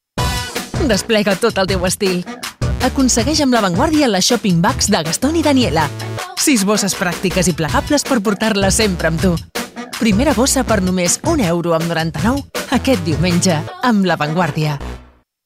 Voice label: female catalan wear comercial